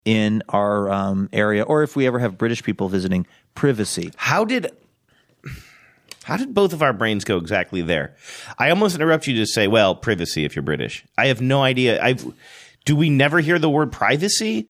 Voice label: spoof of british pronunciation of privacy